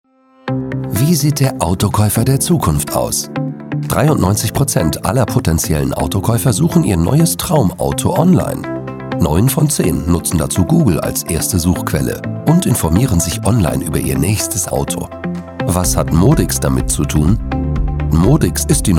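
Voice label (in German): Kommentar Freundlich; Fremdtake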